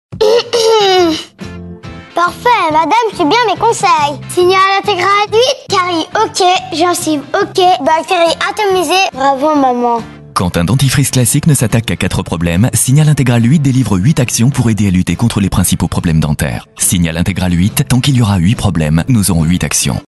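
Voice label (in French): expert droit, comedien voix off homme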